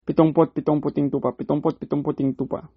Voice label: 77 white sheep, tagalog